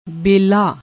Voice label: "bella", Punjabi